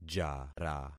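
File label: "jara", concatenated syllables; Affixing experiment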